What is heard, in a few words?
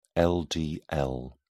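"ldl", English, British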